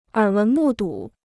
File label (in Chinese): "耳闻目睹", Chinese